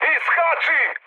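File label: Serbian bailout mood high, speech; War Thunder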